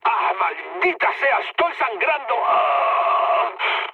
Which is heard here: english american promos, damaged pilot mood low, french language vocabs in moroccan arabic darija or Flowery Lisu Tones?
damaged pilot mood low